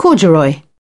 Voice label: "corduroy", British

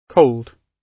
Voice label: "cold", gb

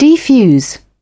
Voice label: "defuse", British

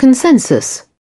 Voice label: "consensus", British

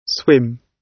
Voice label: "swim", gb

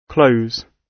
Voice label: "close", gb

